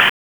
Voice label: Police North District Tac 1; Raleigh; wake